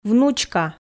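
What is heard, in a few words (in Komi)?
"внучка", Komi, female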